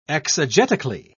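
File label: "exegetically", British English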